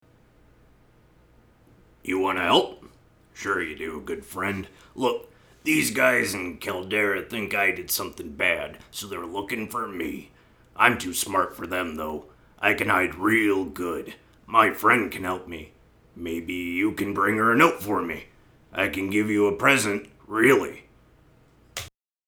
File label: orc; skywind; orc voice auditions